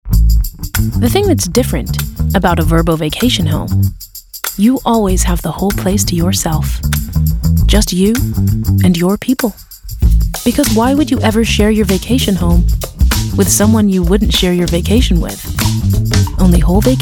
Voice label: US Accent Reel